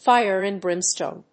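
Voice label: "fire and brimstone", English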